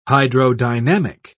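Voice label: "hydrodynamic", British English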